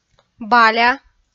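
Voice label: "баля", Komi, female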